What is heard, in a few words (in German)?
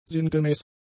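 "sinngemäß", german; adjectives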